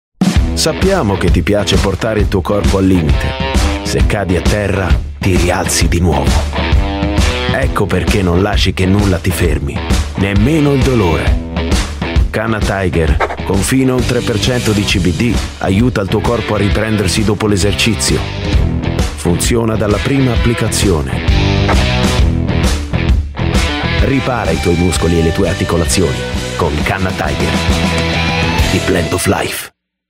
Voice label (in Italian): speaker voce maschile